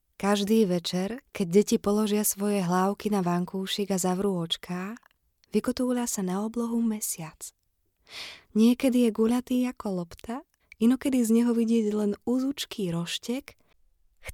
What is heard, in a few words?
czech female; lektoring